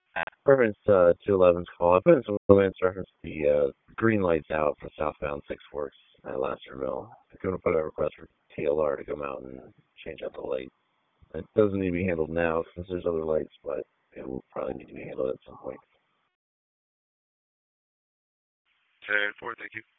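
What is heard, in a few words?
Raleigh Police Northeast District Dispatch, p25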